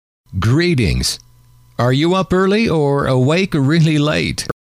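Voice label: greetings Are You Up Early Or Awake Really Late, male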